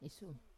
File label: "isum", I kiss, wamesa